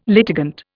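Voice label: "litigant", Indian English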